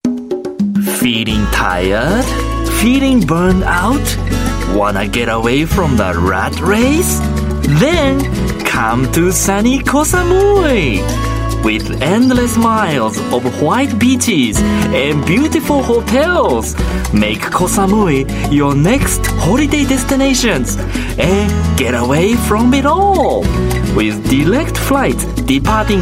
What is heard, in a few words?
Japanese English, Male; aplish